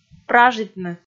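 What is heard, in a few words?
"пражитны", Komi, female; kpv audio dict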